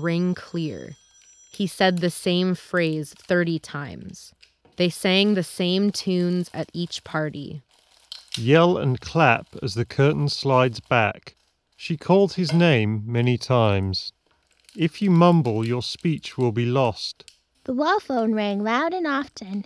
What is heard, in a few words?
Audio Technica ATH GDL3 Office microphone